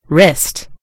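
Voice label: "wrist", British English; gcompris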